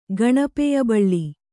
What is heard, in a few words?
"ಗಣಪೆಯಬಳ್ಳಿ", kannada